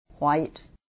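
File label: white, Alabama English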